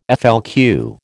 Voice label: "FLQ", American